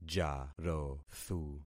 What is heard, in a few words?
"jarothu", concat syllables; Affixing experiment